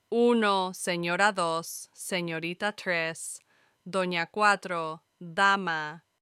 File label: madam in spanish